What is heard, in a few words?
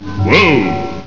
"WHOA", EXCLAIM; EFFECTS